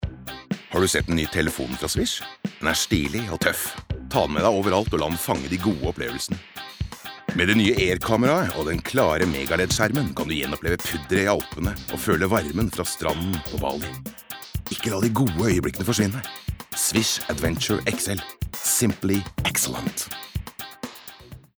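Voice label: no fresh, Norwegian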